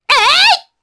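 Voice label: Vox Attack, Japanese; Epis; kingsraid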